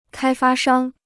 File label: "开发商", Chinese